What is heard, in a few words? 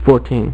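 "fourteen", male; MacCribbage; InterStellar MacCribbage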